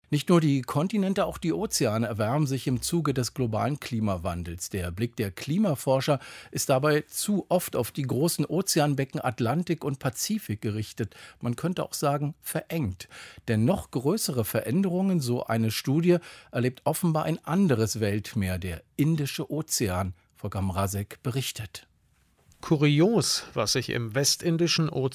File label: interview; indian ocean warming; deutschlandradio